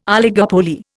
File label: "oligopoly", Indian